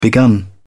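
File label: "begun", en, uk British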